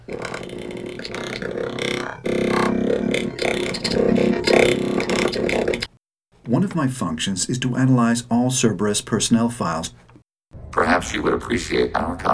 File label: Voice Process; Legion